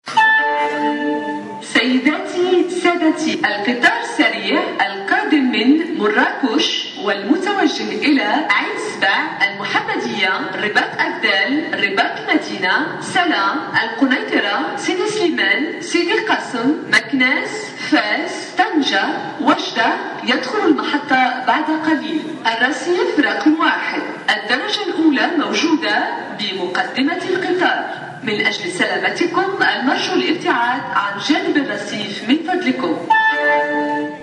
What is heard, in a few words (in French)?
Annonce gare espagnole